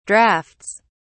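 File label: "drafts", British English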